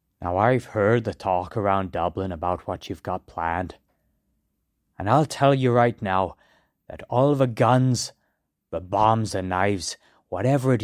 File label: Irish